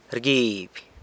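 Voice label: "rguiby", washes, San Lucas Quiaviní Zapotec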